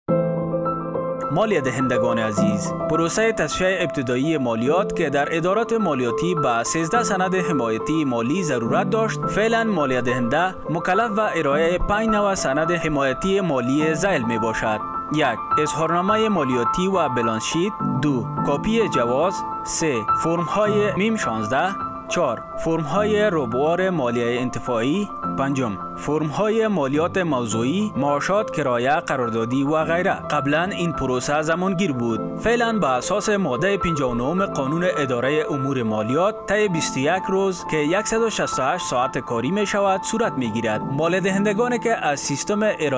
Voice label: Dari; ARD